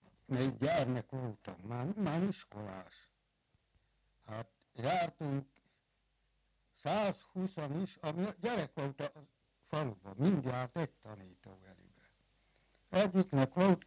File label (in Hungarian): nyelvjaras gyujtesek